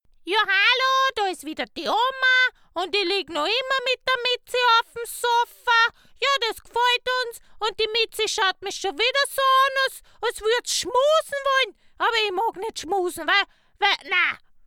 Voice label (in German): Trickstimme Oma